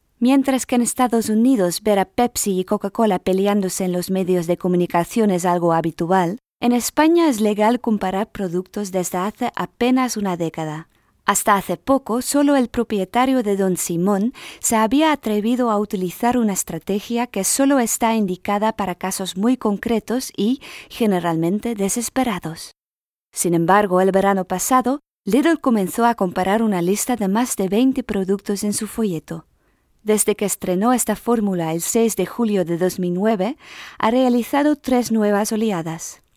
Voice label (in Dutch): Spaans